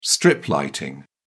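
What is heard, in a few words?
"strip lighting", British English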